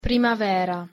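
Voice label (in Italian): "primavera", Italian pronunciation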